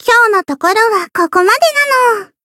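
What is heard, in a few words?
win, Japanese; lele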